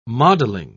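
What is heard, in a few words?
"modeling", British English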